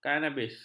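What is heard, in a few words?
"kanabis", Malay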